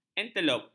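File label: "antelop", Malay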